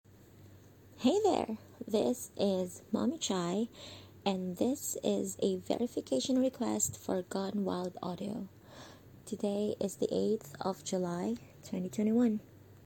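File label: Female Verification Request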